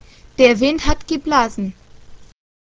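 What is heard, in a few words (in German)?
"blasen" German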